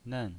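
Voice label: "nen", pinyin voice, Mandarin Chinese, fourth tone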